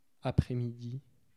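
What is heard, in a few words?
"apres-midi", French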